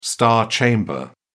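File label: "star chamber", British English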